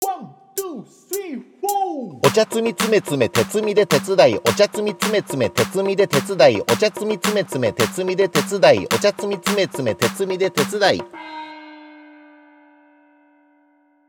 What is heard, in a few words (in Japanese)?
早口言葉リズム（中級編）